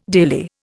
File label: "daily", Indian